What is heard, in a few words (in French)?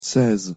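"seize" French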